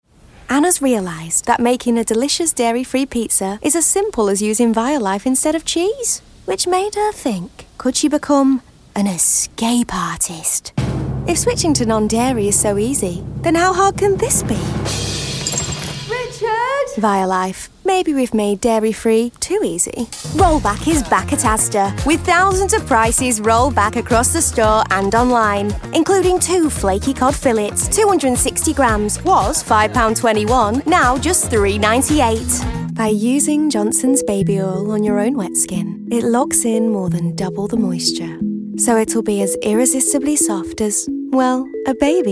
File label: commercial, 20s-30s. Female. Lincolnshire.